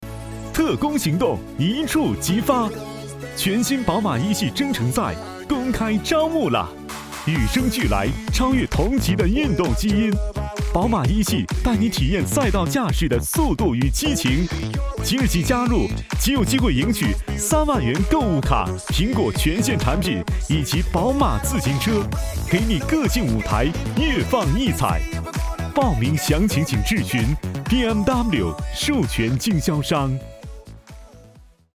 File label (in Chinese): 促销广告; 宝马